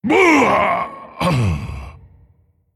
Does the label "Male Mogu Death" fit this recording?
Yes